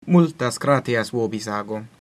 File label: thanks, latin